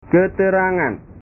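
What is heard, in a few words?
"keterangan", Indonesian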